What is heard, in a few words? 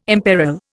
"imperil", Indian